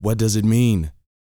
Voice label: TALK, Vocal, SCI-FI; 5 SCI-FI CHZ

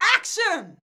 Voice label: "action", shouts; Best Service; Hyper Dance

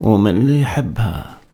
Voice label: POET, POET VOICE, Arabian Traditions; USB Soundscan vol.43; AKAI

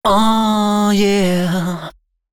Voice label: male c135, adlips; Mutekki Media; Dance Ultra Voice VOL-1

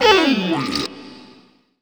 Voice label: FX TALK, PROCES, Funky Vocals; USB Soundscan vol.18; AKAI

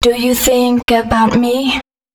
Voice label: female, spoken hooks

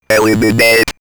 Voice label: Robot Eliminate, robot vox; X-Static Goldmine

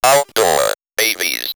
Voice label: Robot Outdoor Babies, robot vox; X-Static Goldmine

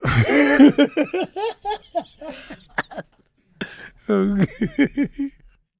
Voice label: PREACHIN; Spectrasonics Vocal Planet; Jazz FX

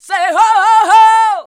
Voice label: "say", shouts; Best Service; Hyper Dance

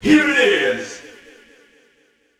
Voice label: Male FX Vocals; Houseworx

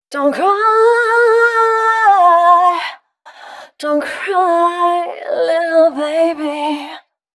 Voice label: female 130, Dance Ultra Voice, short hooks

